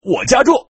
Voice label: Man add